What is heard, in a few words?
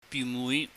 "biumui", cantonese